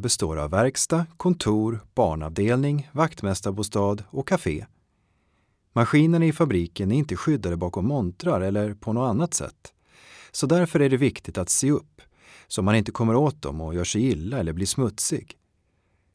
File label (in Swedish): Syntolkning